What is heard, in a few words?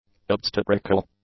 "obstetrical", english; adjectives